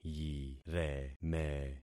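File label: "yireme", concatenated syllables; Affixing experiment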